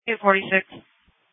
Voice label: Sheriff Dispatch